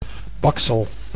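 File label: "baksel", Dutch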